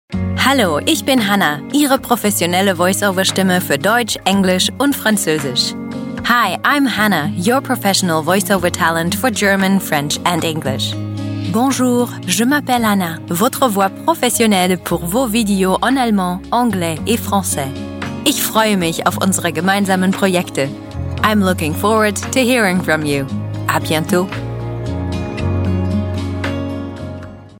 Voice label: german female natural

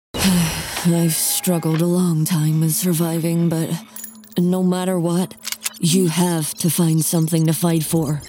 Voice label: general american hero survivor

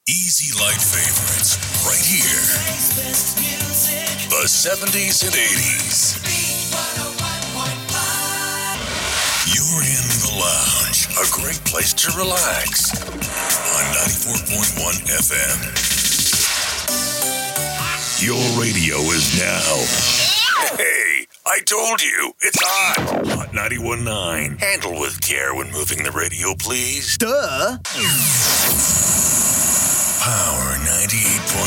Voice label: american male idents

